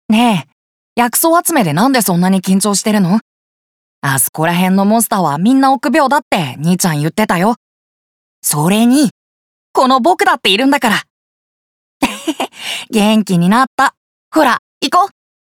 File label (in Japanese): ゲームを語る少年